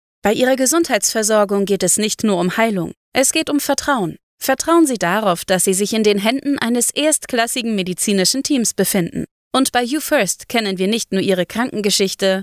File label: Sincere